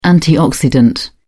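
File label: "antioxidant", British English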